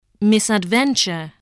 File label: "misadventure", voice, spelling